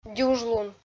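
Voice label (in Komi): "дюжлун", Komi, female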